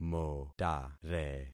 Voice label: "motare", concat syllables; Affixing experiment